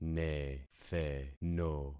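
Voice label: "netheno", concatenated syllables; Affixing experiment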